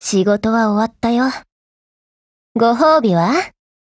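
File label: Japanese, Voice; OPERATIONOVER; GF Alarm; NZ75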